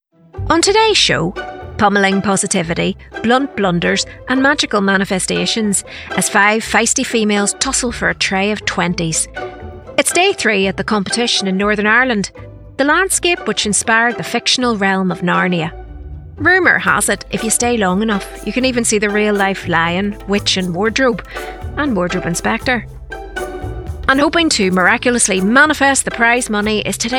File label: TV narration; 20s-40s; Male; Northern Irish